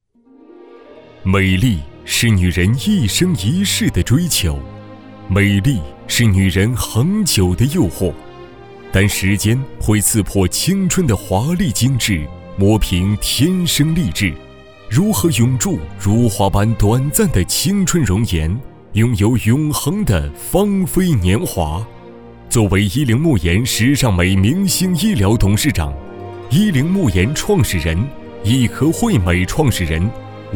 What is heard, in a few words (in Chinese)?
专题 大气温情, 男; 依龄慕颜